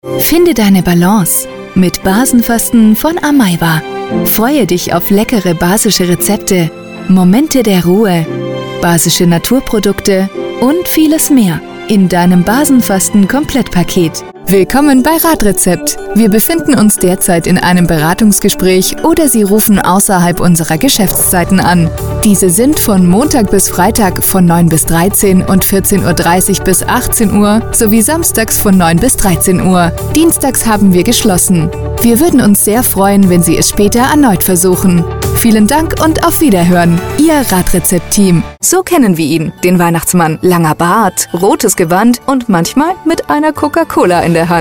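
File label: German, seslendirme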